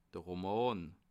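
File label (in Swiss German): "roman", Bas Rhin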